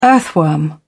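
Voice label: "earthworm", British English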